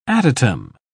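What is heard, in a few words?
"adytum", British English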